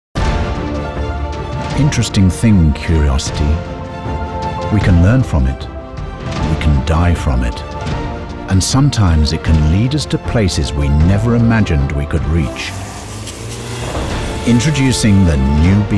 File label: Commercial Reel